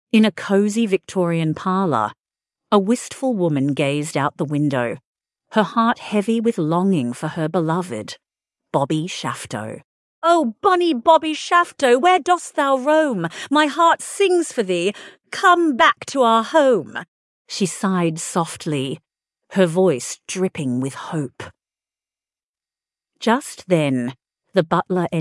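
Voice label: female Australian story; Bobby Shafto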